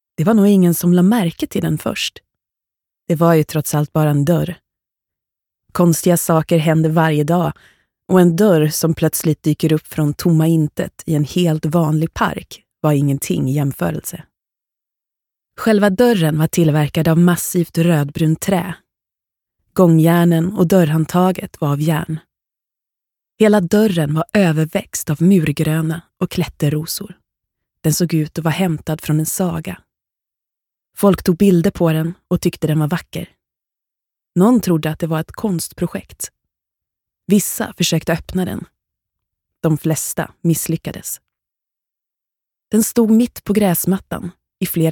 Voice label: SWE Audiobook f